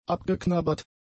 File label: "abgeknabbert", German; adjectives